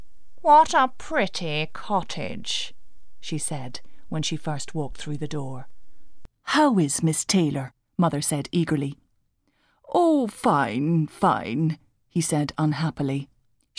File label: Char voices short